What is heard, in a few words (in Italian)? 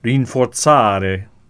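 "rinforzare", Italian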